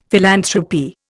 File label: "philanthropy", Indian; Dictionary